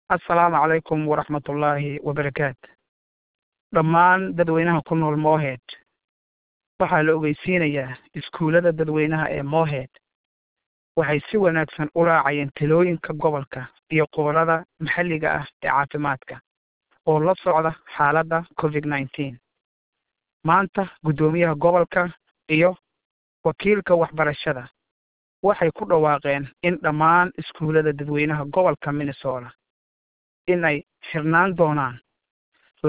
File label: Somali; covid-19